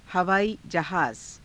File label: havaaii jahaaza, Hindi